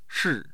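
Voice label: "shi4", Mandarin Chinese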